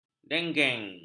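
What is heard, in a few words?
"dengeng", Malay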